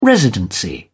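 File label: "residency", British English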